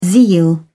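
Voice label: "zeal", British English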